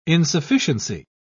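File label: "insufficiency", British English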